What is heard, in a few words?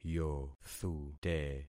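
"yothute", concatenated syllables; Affixing experiment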